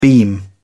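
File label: "beam", English, British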